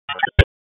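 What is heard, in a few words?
Police Southwest District Dispatch, p25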